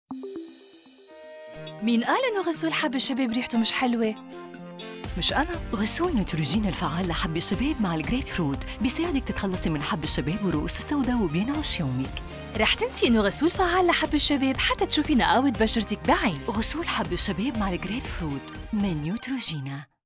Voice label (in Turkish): Arapca Kadin Lubnan Arapcasi, seslendirme; kadin sesler